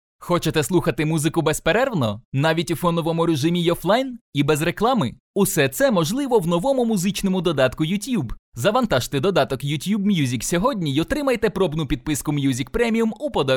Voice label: ukrainian voiceover locutor ucrania, Ukrainian male voice over